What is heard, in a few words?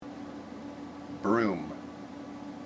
"broom", IPA